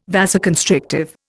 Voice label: "vasoconstrictive", Indian